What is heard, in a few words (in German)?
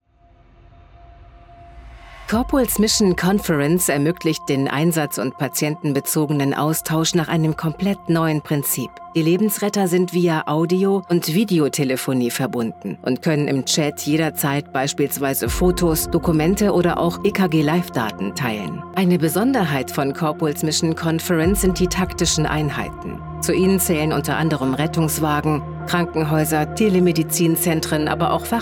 Produktfilm Medizintechnik